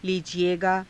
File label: "liijiegaa", Hindi; लीजिएगा; [他動]取って下さい